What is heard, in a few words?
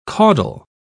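"caudle", British English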